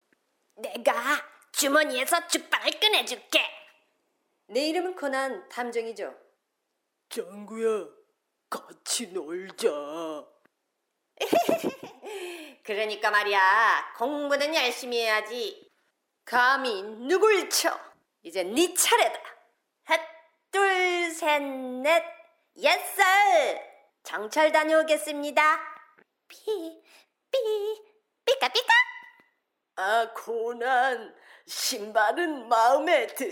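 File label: KO Characters, Korean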